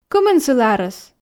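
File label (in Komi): кымын сылы арӧс, Komi, female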